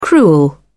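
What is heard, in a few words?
"cruel", British English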